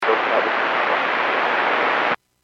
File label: Airports 122.7, Radio; KSTE